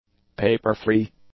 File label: "paper-free", English; adjectives